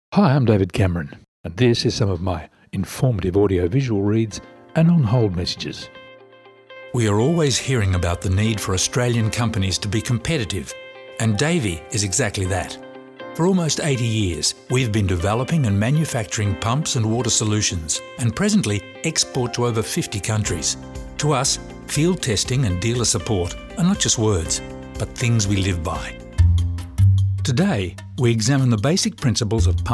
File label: corporate; EM Voices